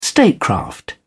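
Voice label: "statecraft", British English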